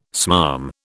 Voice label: "smarm", British

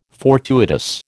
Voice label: "fortuitous", American

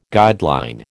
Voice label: "guideline", American

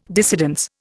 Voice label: "dissidence", Indian

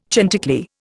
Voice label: "chanticleer", Indian

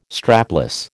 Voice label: "strapless", American

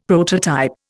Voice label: "prototype", Indian; Dictionary

